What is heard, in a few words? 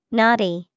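naughty, English vocabulary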